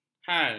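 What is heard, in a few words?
"hal", Malay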